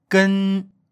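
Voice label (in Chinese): "gen", Mandarin Chinese, male; Mandarin pronunciation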